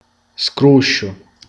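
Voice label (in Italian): "rumore", Sicilian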